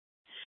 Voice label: Superstition Dispatch, Mesa PD